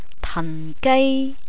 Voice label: "tanggai", Cantonese

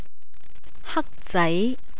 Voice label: "hakzai", Cantonese; feeling

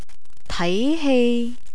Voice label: "taihei", Cantonese; entertainment

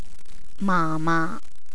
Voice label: "mama", Cantonese; address

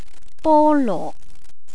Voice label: "bolo", Cantonese

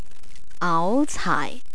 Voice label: "aaucaai", Cantonese

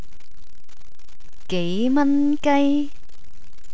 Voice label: "geimangai", Cantonese

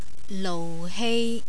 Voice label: "louhei", Cantonese; feeling

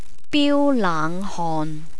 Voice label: "biulaanghon", Cantonese

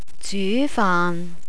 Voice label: "zyufaan", Cantonese; cooking

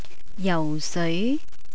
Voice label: "jauseoi", Cantonese; entertainment

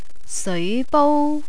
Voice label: "seoibou", Cantonese; cooking

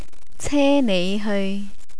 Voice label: "ceneiheoi", Cantonese

